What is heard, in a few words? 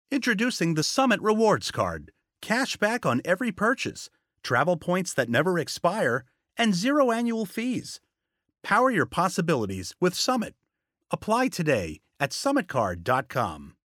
Commercial; Summit Card